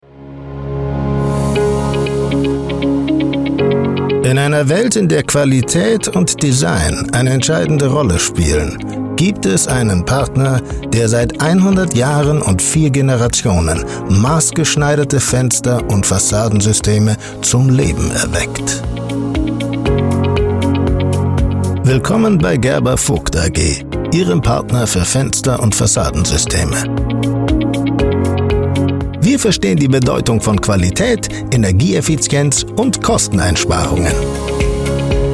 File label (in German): IMAGEFILM; Gerber Vogt AG